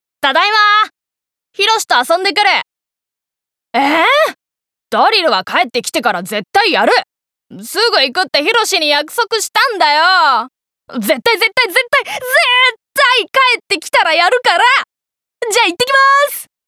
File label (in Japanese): 少年